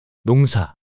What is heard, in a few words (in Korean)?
"농사" 단어 남자